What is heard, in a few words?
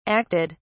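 "acted", British English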